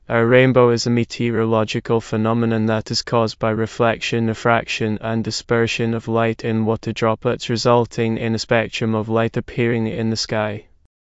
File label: speaker, en GB; piper voices; vctk